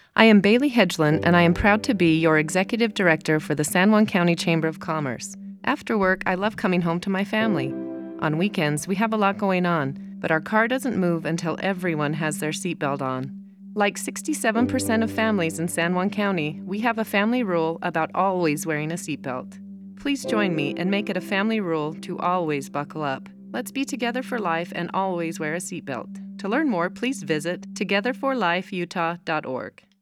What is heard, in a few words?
Radio Key Leader; San Juan